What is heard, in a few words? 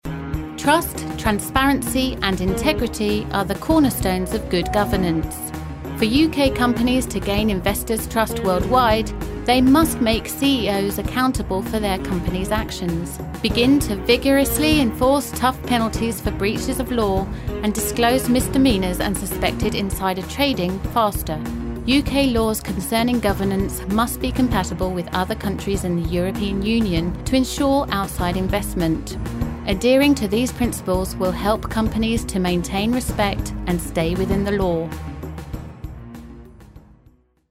Corporate